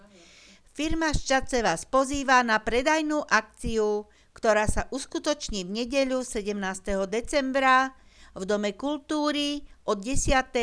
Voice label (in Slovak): komercne hlasenie